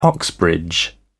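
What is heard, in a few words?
"oxbridge", British English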